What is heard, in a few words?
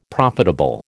"profitable", American